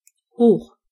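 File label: high, German voices words; gcompris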